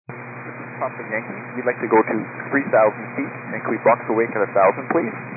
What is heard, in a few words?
122 725MHz, scanner radio